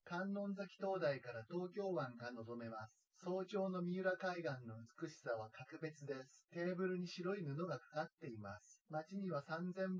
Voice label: male synthconv 130ms 1m